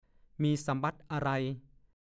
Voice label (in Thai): "มีสำบั๊ดอะไร", ภาษาโคราช